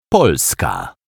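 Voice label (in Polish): "polska", Polish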